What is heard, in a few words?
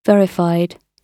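"verified", British English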